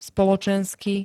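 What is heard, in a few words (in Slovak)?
"spoločensky", Slovak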